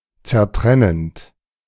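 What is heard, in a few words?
"zertrennend", German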